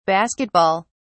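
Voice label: "basket ball", British English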